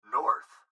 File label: Gps Voice, Male